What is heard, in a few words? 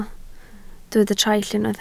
"traill", Welsh